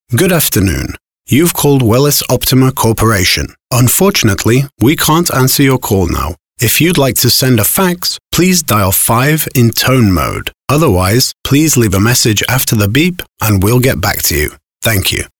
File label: IVR